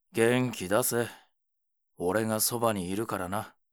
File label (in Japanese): ouen, ASMR